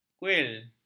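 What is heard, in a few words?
"kuil", Malay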